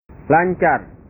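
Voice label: "lancar", Indonesian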